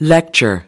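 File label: "lecture", American English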